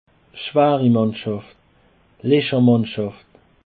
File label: "schwari", Alsace